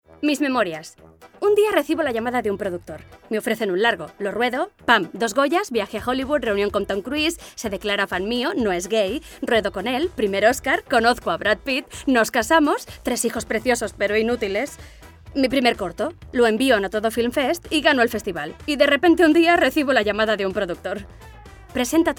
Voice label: female spanish festival comercial